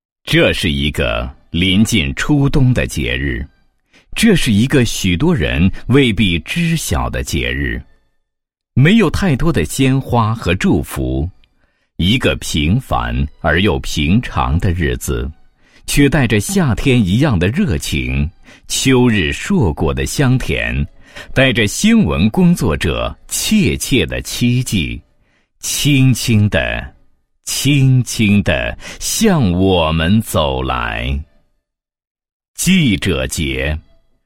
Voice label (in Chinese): 男 诗歌; 记者节